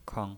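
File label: "keng", neutral tone, pinyin voice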